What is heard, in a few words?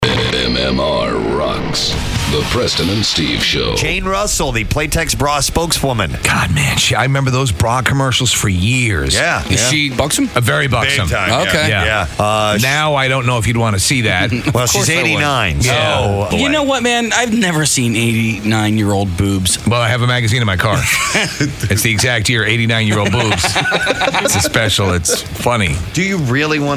PROMO; CHEST LABIA